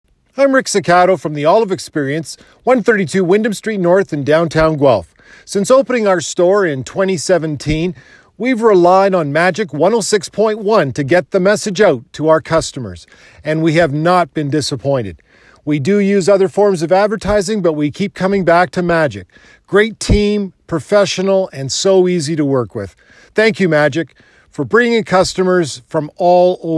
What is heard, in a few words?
Testimonial